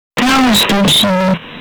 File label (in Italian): "ciao", italia; Ciao a tutti lì.